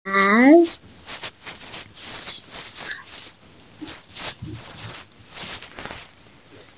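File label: "nv3hai2", Mandarin Chinese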